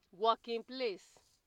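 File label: "working place", Nigerian Pidgin, female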